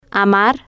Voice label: "amar", spanish

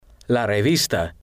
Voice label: "la revista", Spanish

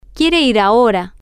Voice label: he wants to go now, spanish, F

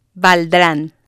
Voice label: "valdran", spanish

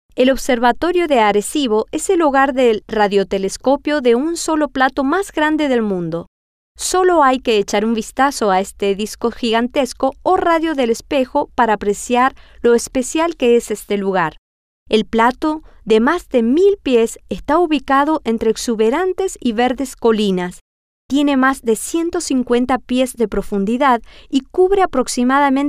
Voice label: Spanish; el Telescopio Mas Grande

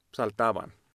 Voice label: "Saltaban", spanish

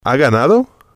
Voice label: "ha ganado", spanish

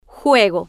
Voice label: "juego", Spanish

